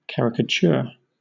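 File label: "caricature", British English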